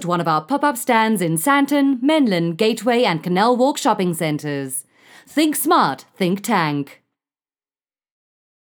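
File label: Voice English South African Hard sell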